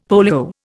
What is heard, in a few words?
"polio", Indian; Dictionary